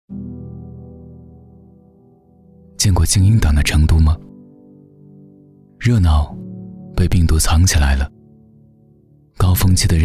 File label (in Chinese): 男, 走心独白; 静音的成都